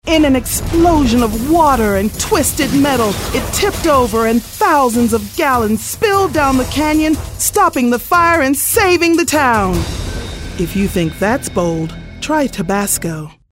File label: movie trailer